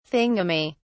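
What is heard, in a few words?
"thingummy", British English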